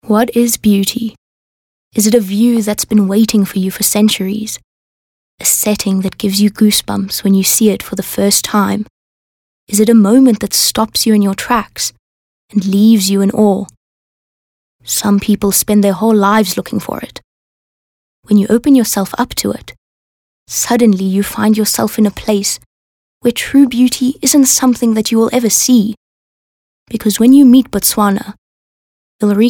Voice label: English Softsell